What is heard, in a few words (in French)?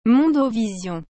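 "mondovision", French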